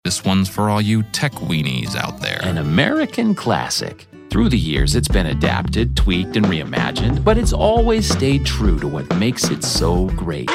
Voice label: character

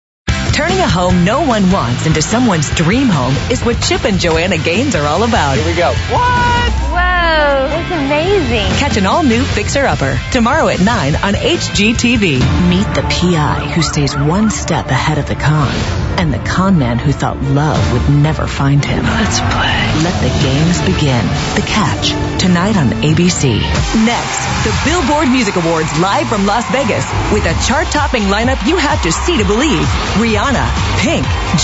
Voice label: promo

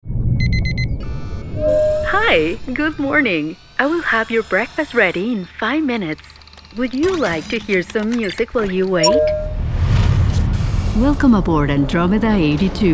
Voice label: English; Talent Management Group, Inc.; Murray, UT

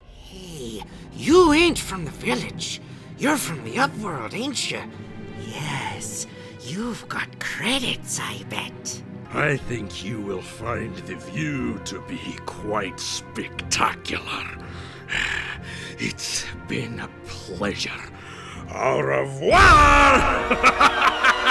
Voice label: Animation

